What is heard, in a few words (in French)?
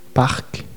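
"parc", French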